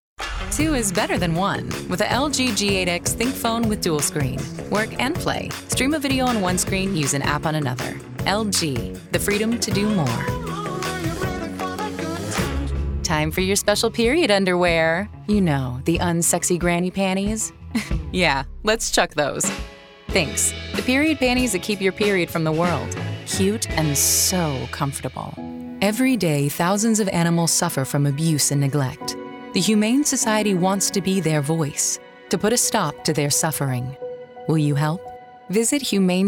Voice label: women commercial; Warm, rich tones. A voice that inspires.